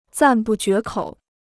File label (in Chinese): "赞不绝口", Chinese